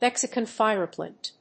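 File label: "mexican fire plant", English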